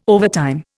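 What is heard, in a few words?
"overtime", Indian English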